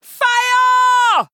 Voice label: Female Troll; Hooktusk; Tamsin Mission2 Hero Power